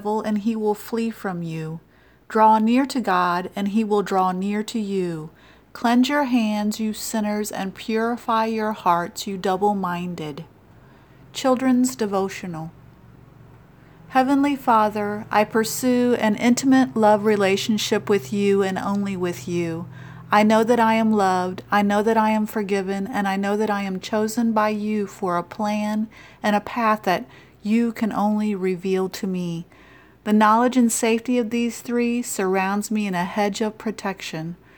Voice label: Childrens Bible Verse